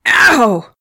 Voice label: Female Constable combat sound; SyrinScape; Missing Magistrate